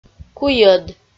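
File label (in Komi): "куйӧд", Komi-Zyrian, female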